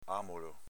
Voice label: "amolo", dialetto veneto

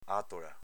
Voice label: "atola", Veneto; s.f. botta, pacca, ceffone

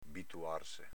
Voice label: "bituarse", dialetto veneto

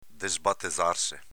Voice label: "desbatezàrse" Veneto

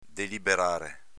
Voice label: "deliberare", dialetto veneto

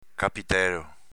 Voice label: "capitelo", dialetto veneto

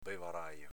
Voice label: "bevarajo", dialetto veneto

